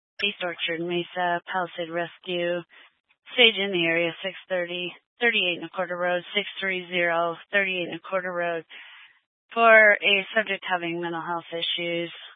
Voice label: Fire Department Dispatch, scanner; Grand Junction